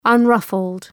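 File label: "unruffled", English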